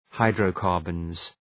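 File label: "hydrocarbons", English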